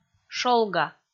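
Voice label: "шолга", Komi, female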